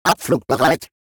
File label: robot Pilots Ready; World Of Warships